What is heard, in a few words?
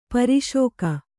"ಪಾರಶಿಕ", Kannada